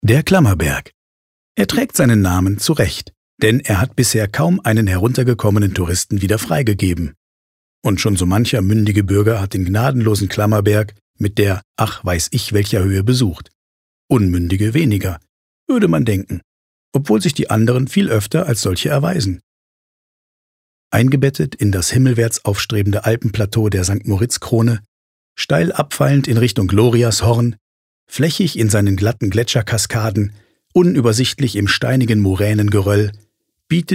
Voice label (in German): Kommentar, Neutral